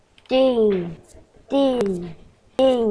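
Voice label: "ding", spoken word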